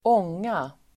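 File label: "ånga", Swedish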